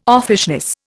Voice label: "offishness", Indian